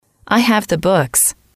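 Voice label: i have the books, English, female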